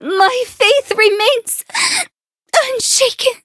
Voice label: hit, en; cookie run